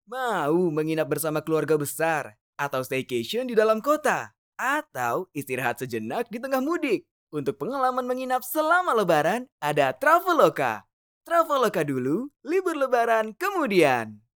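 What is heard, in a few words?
INDONESIA CASUAL, smiley voice is somehow soothing and believable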